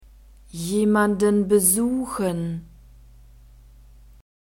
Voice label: "jemanden besuchen", German